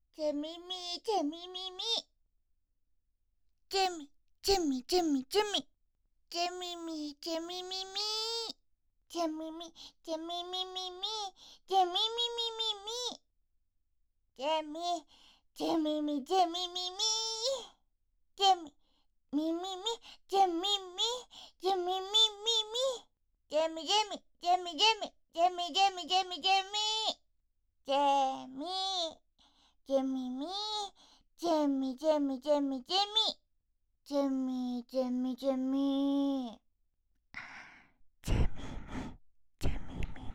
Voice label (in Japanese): アラーム音声